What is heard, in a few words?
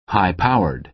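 "high-powered", American English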